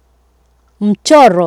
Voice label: "mchoro", Swahili